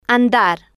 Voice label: "andar", Spanish